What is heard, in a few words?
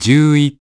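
"ten one", English; iOS 4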